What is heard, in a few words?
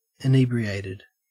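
"inebriated", Australian English; pronunciations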